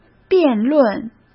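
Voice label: "辩论", Mandarin Chinese; hsk; chinese flashcards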